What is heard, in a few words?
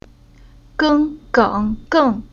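"Geng", Chinese syllable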